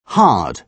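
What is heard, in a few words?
"hard", British English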